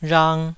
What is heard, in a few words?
"rhang2", taiwanese